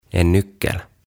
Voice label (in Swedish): "En Nyckel" "Avain"